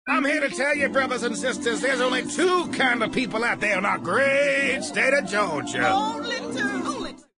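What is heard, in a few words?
preacher, voice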